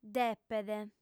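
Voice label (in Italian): "depet", lingua sarda, female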